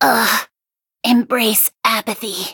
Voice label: hit, en; Cookie Run